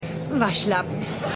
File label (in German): Frau